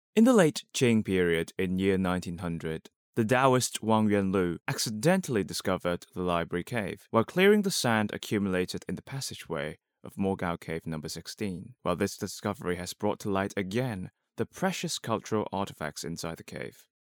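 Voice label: Mandarin Chinese, China, male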